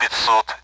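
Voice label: Speech Sound Russian Common AWACS Numbers; 50; DCS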